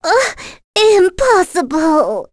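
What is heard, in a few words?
Vox Dead, English; Aisha; kingsraid; voices heroes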